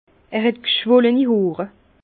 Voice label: "hoor", Bas Rhin; vin

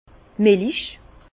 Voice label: "millich", Bas Rhin; vin; lait

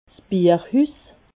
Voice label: "bierhus", alsace

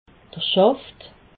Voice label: "schaft", alsace

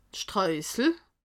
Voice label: "streussel", alsace

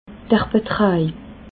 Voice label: "betraj", alsace

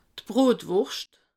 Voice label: "brotwurscht", alsace

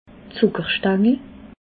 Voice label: "zucker stangele", alsace

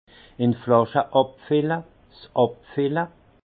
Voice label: "inflasche", alsace; vin; mise en bouteille

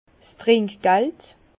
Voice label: "trinkgeld", Bas Rhin; vin; pourboire

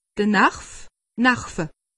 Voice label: "narv", alsace; medecine

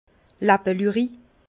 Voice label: "lappeluri", Bas Rhin; vin; boisson insipide piquette

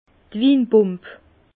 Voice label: "winpump", Bas Rhin; vin; pompe à vin

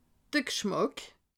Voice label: "gschmack", alsace

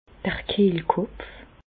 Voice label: "d'r Kehlkopf", Bas Rhin; larynx; medecine

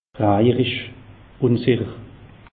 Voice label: "rajerisch", alsace; meteo

